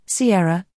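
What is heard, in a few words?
"s", British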